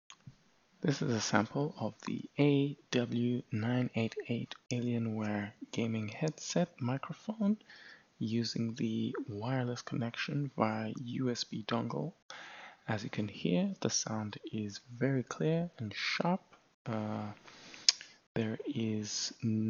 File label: Mic test; Alienware AW988